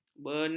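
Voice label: "benam", Malay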